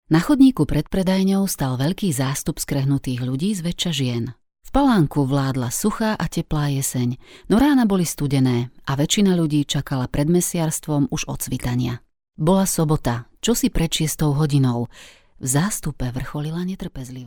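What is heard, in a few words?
Slovak, female, narration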